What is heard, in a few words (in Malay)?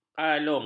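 "kalung", Malay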